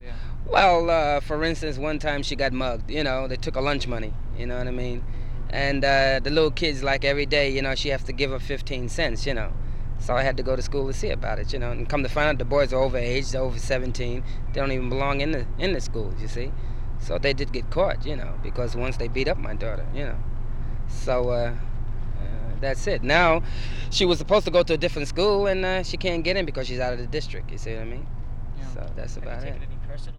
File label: New York interviews